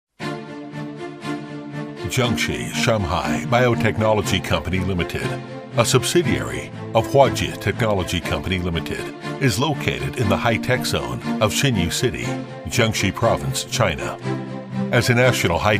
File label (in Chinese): 宣传片; CPHI; 香海宣传片文字内容